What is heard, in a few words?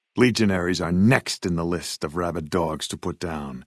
male old greeting; Fnv